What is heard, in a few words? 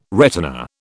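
"retina", British English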